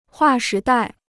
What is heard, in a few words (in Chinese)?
"划时代", Chinese